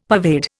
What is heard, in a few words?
"pervade", Indian; Dictionary